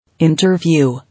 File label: "Interview", English